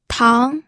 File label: "tang2", pinyin